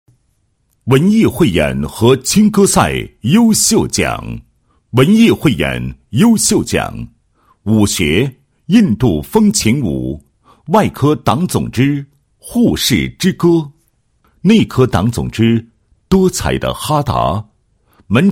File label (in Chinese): 男 闭幕式 文化艺术节闭幕式暨颁奖盛典颁奖